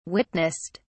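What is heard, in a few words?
"witnessed", English, British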